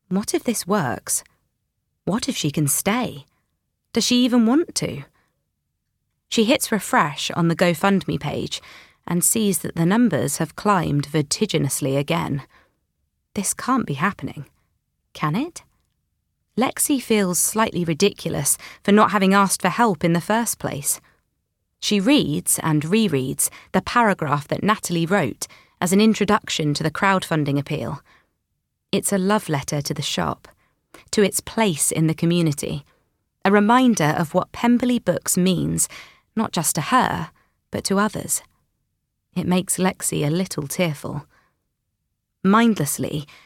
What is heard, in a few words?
Audiobook